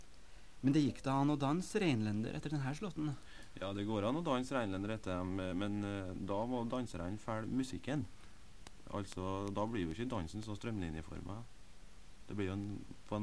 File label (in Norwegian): Tale